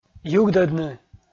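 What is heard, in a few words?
"югдӧдны", Komi, male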